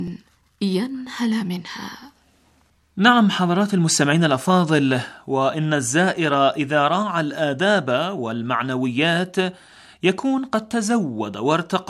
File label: Arabic